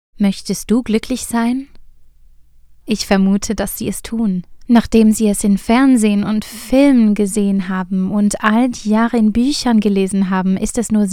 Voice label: Character GERMAN Calming Mature; Time Sync

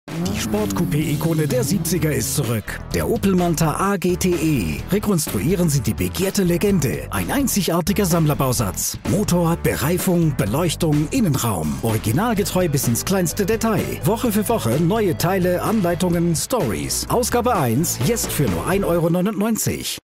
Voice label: Commercial; Hachette

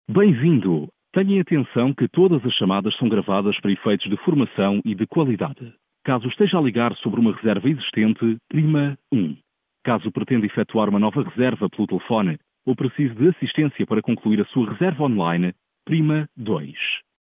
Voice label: PT IVR

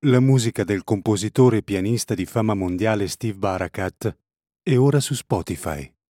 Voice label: ITA NEW RELEASE ANNOUNCEMENT; SPOTIFY

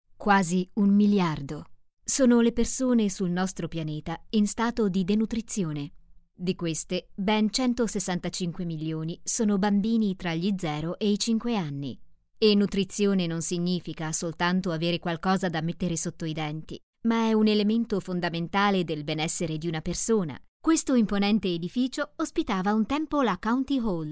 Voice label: ITALIAN CHARITY DOCUMENTARY MEDICAL AUDIOGUIDE

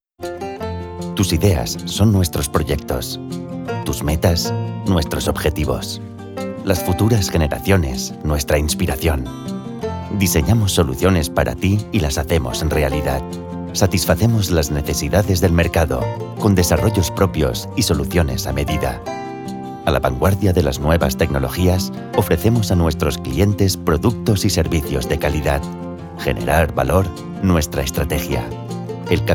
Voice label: spanish Corporate explanatory

